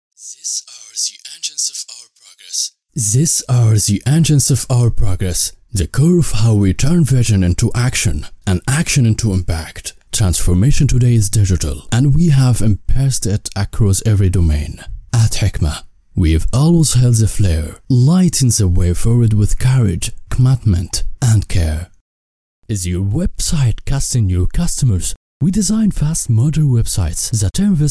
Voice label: Commercial English Voiceover; Demoreel